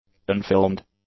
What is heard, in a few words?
"unfilmed", english; adjectives